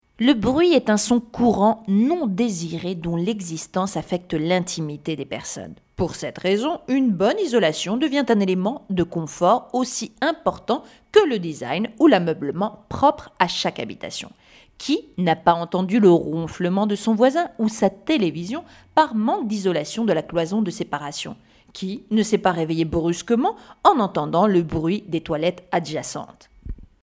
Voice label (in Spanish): locutora francesa